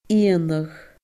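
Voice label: "iongnadh", Scottish Gaelic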